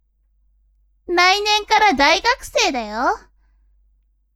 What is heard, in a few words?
woman